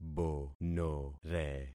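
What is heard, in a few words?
"bonore", concatenated syllables; Affixing experiment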